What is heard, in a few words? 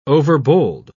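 "overbold", British English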